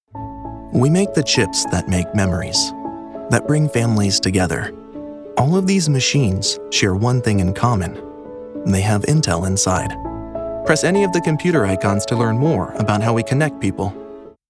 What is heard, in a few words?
Explainer; Intel